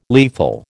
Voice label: "lethal", American